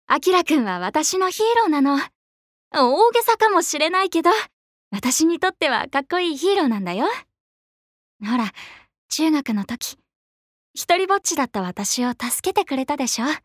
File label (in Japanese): アニメ